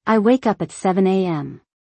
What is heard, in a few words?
I wake up at 7 AM. - English; Time and Routine